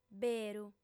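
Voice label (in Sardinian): "beru", female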